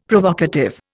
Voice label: "Provocative", Indian; Dictionary

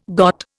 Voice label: "got", Indian

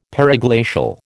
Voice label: "periglacial", American

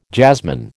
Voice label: "jasmine", American

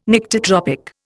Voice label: "Nyctitropic", Indian

